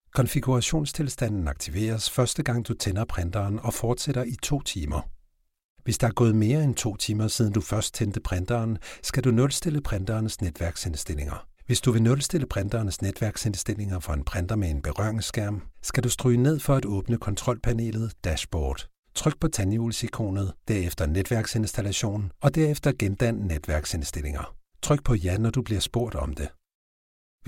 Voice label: male danish e-learning; hewlett packard